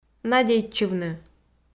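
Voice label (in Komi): "надейтчывны", Komi, female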